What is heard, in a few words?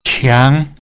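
"qiang" strong, Mandarin Chinese pinyin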